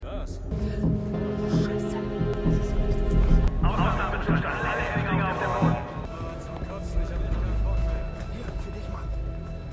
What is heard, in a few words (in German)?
Waerterin Durchsage; Nazi Insasse; Truth Be Told